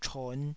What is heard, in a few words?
"chon5", Taiwanese